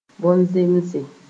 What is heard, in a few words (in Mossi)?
"bõn-zẽmse", moore